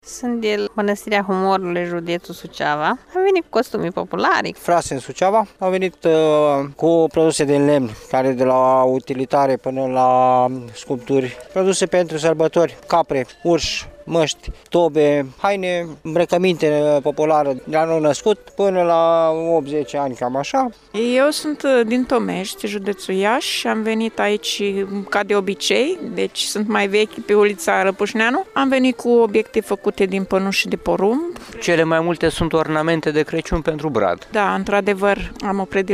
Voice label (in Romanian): vox pop ulita lapusneanu